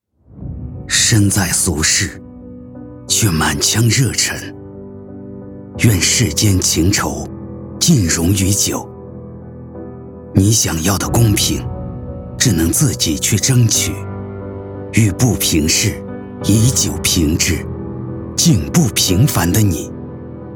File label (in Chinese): 低沉磁性广告